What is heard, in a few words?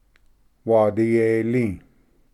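"wadiyeli", Cherokee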